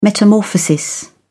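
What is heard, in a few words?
"metamorphosis", English, British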